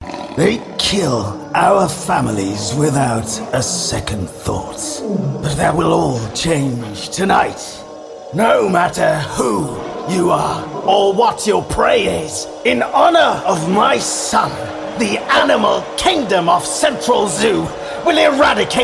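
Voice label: English UK, Characters; GoLocalise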